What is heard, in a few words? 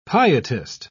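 "pietist", British English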